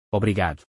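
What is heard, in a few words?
"obrigado", Brazilian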